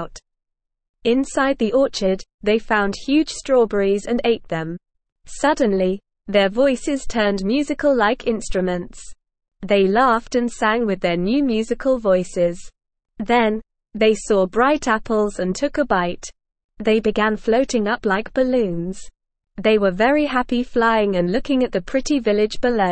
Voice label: ESL Short Stories for Kids Lower Intermediate NORMAL Reading; The Orchard of Oddities